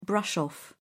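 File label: "brush off", English, British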